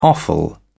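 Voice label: "offal", British English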